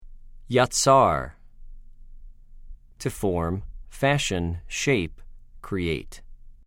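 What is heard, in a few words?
"יָצַר", Hebrew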